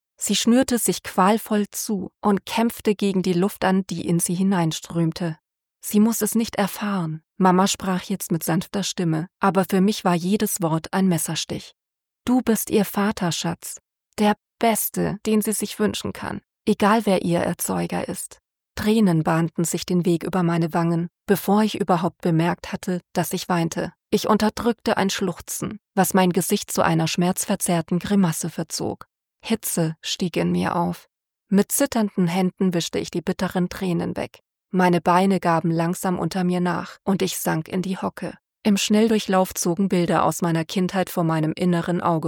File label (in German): Hoerbuch